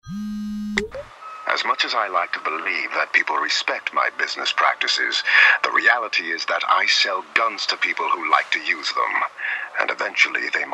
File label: radio; campaign; bloodhound; booth